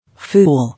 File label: "Fool", English